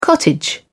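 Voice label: "cottage", British English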